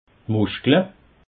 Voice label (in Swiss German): "muschkle", alsace